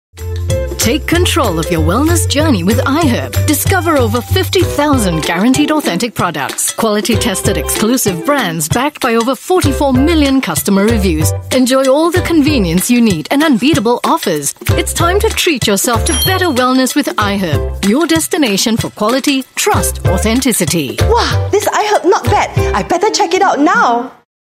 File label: Asian English Brand Campaign Video; iHerb